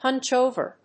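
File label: "hunch over", English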